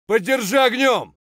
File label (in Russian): "podderjal ognem" scream; tarkov